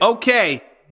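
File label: "okay", male voice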